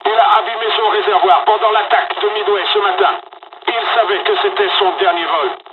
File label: dialog, dialogs french; midway; War Thunder